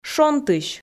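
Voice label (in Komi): "шонтысь", Komi, female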